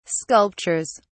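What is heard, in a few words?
"sculptures", English, British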